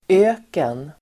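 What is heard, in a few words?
"öken", Swedish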